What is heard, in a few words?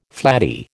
"flattie", American